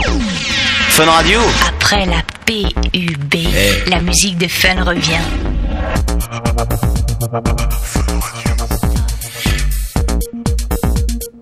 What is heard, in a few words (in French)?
apres la pub la musique de fun revient + bed